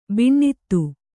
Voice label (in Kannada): "ಬಿಣ್ಣಿತು", Kannada